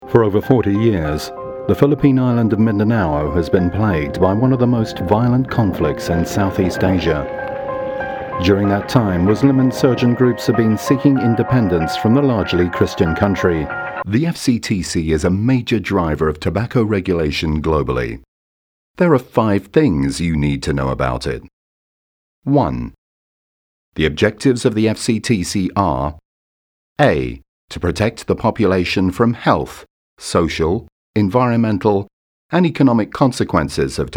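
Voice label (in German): UK English, Variabel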